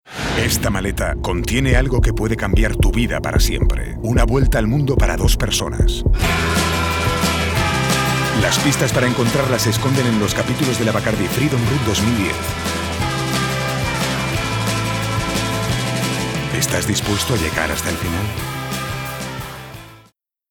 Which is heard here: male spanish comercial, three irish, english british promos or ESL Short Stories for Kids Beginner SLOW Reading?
male spanish comercial